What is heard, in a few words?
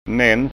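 "nans", Scanian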